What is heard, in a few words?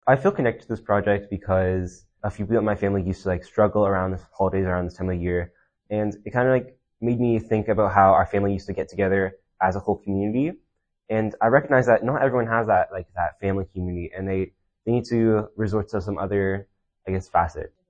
male; westside secondary fundraiser warm winter wishes